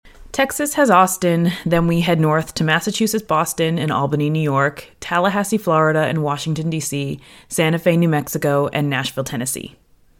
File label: Blue Yeti desk distance, microphones